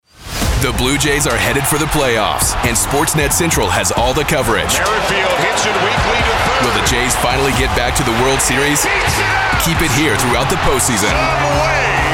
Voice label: promo